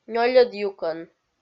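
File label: "нёльӧд юкӧн", Komi, female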